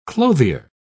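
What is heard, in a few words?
"clothier", British English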